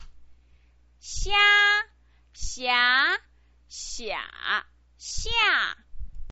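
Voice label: "xia", Mandarin Chinese pinyin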